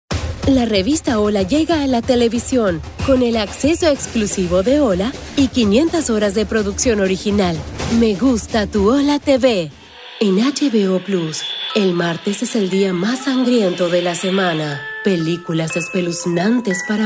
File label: Spanish Latin American promos